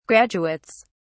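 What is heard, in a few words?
"graduates", English, British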